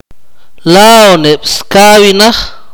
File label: "thirty", Akateko